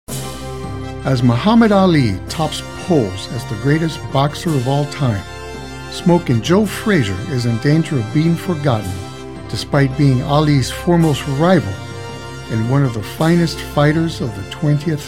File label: Narration